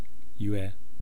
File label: "ywe", East Cree syllabics; language